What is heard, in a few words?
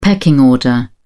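"pecking order", British English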